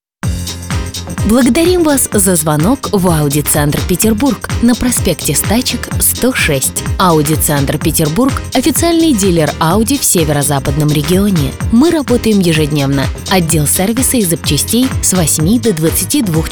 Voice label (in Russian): golosovoe privetstvie; Audicenter; ivrplus